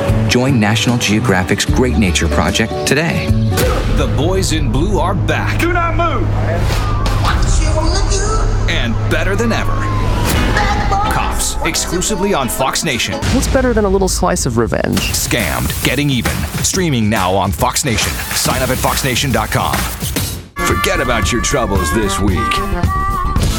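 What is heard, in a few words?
tv promo, American Male Voice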